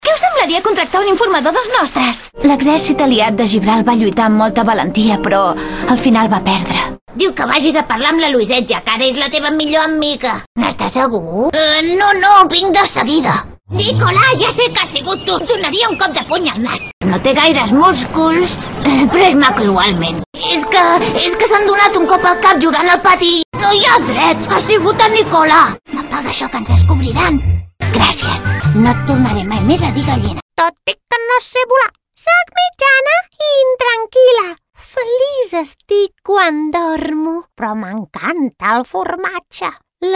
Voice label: catalan animation